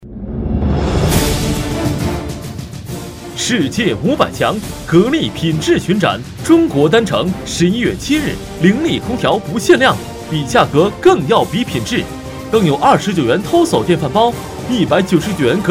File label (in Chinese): 【促销】家电大王男